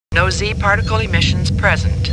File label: Star Trek Computer Voice; StarTrekLCAR